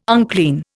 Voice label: "unclean", Indian